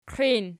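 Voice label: "qiin" nominative, Archi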